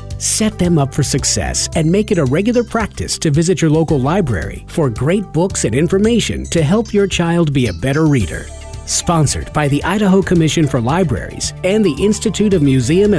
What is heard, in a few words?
Summer Reading Radio English